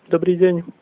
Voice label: good morning, slovak

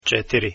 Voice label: "4", Croatian

